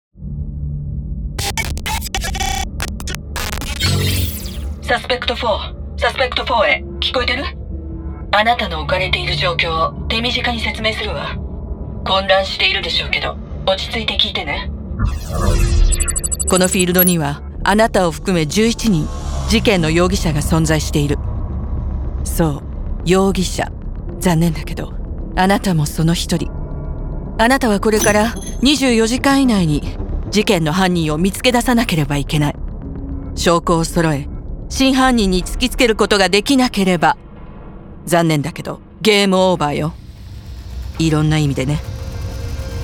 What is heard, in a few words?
Game Promo